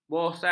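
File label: "bosan", Malay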